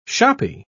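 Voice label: "shoppy", British English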